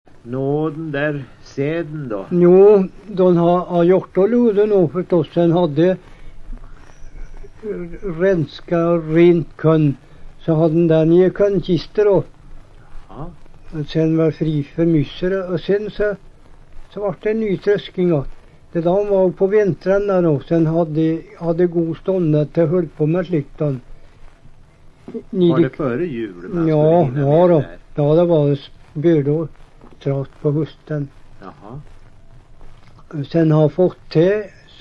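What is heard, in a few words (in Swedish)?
Hede Härjedalen, dialekter; Folkminnen; Svenska sagor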